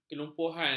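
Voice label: "kelumpuhan", Malay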